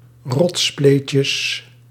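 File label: "rotsspleetjes", Dutch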